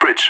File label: bridge, radio; LEET